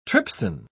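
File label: "trypsin", British English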